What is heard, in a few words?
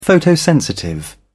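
"photosensitive", British English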